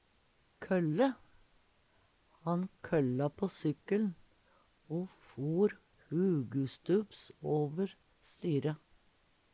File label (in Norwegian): "kølle", Numedalsmål